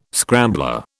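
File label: "scrambler", British English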